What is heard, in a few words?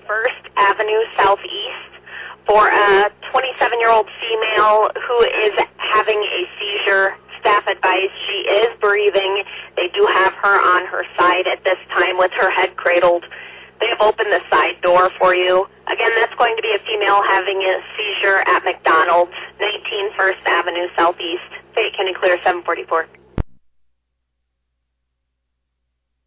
Oelwein Ambulance, dispatch